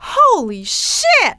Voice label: holy shit, female; kingpin; yolanda